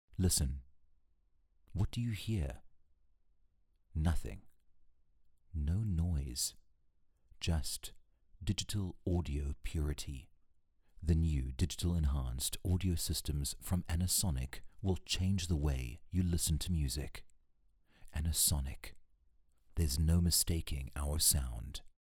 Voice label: English Quiet Soft Sell